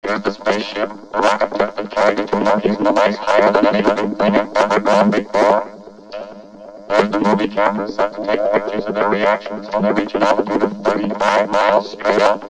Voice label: Straight Up, Spoken, Industrial